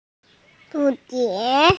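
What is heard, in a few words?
"hu2die2", Mandarin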